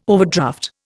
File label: "overdraft", Indian